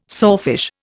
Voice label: "sawfish", Indian

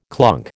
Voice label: "clunk", American

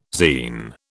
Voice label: "zein", British

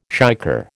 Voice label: "shicker", American

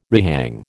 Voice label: "rehang", American

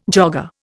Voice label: "jogger", Indian

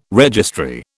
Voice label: "registry", British

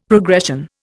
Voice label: "progression", Indian; Dictionary

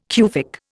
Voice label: "Cufic", Indian

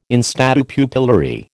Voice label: "in statu pupillari", American

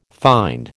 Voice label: "find", American

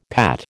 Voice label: "Pat", American

